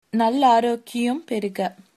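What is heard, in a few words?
cheers, female, tamil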